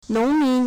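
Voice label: "nong2min2", Mandarin Chinese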